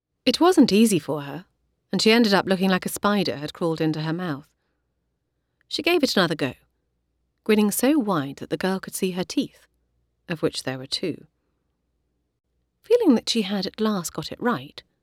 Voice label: Narrative

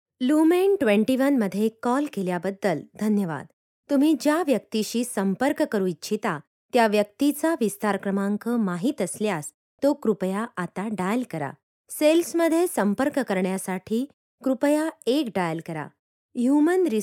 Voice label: Marathi IVR clear bright but slow

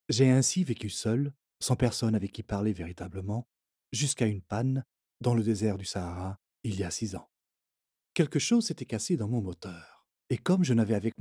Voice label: French Audiobook VO

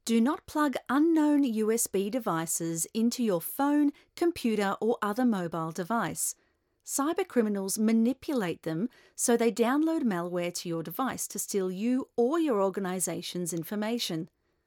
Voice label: e learning

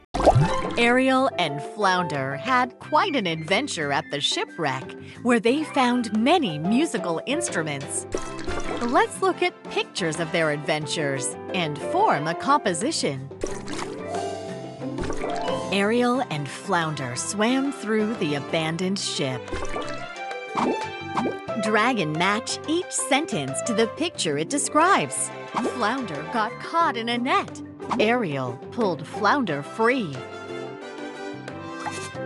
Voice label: Kids Learning

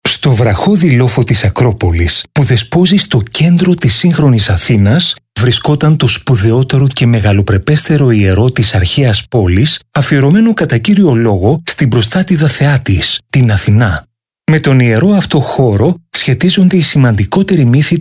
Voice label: GREEK NARRATION

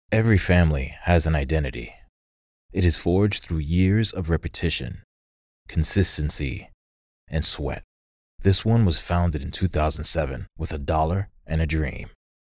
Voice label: Hardcore Fitness script

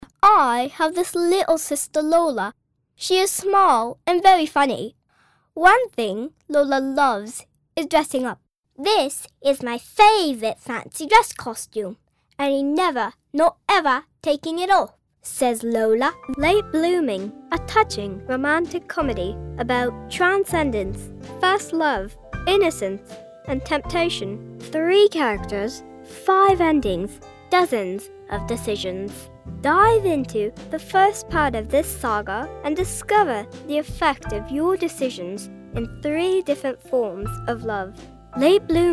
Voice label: British Voices

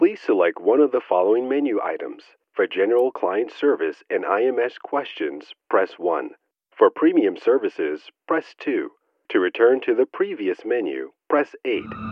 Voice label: Client Services phone message

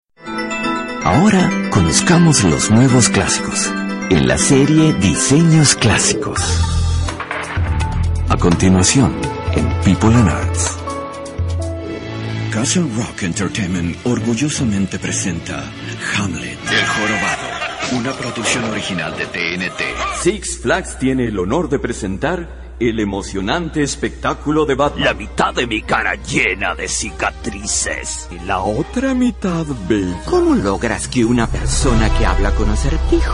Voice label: Trailers Commercials

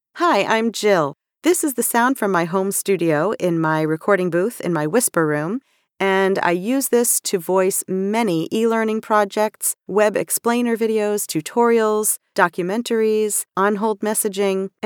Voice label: Studio Sample

